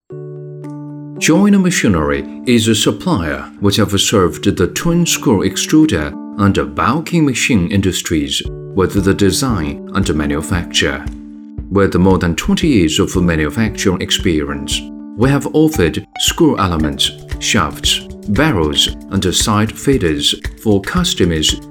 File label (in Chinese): 男英 专题 政府; 英语; 江宁